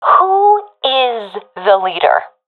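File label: request leader, professional female; vo; Counter Strike Model Pack; CS:GO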